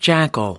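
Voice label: "jackal", American English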